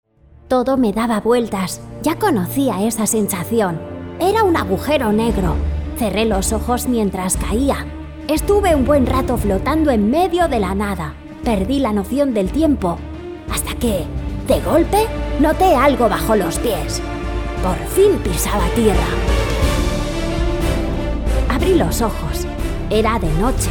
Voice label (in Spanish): Podcast; Niño